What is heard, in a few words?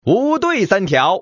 common man